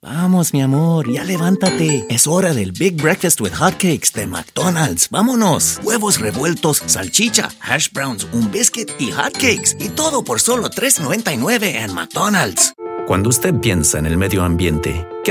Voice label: bilingual spanish english female bilingual male spanish